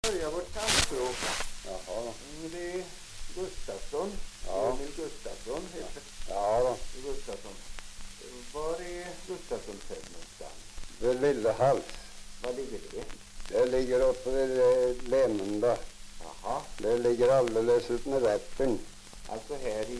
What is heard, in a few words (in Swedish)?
dialekter; Folkminnen